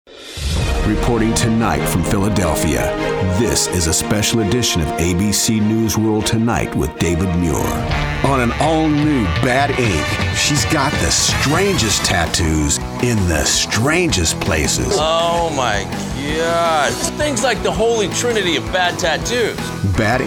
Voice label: voice over actor